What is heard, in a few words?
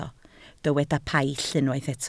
"paill", Welsh